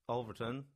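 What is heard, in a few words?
"Alverton", English; place-names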